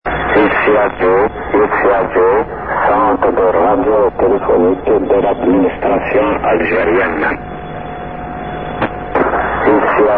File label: usb